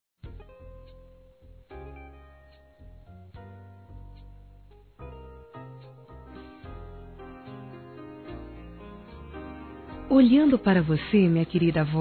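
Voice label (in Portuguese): Vovó, Feminino; Aniversários; Aniv Familiar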